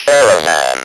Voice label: "CARAVAN", Speak & Spell